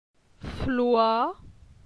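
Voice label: "Floi", French; phonetique; la syllabe oi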